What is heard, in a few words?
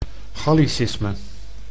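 "gallicisme", Dutch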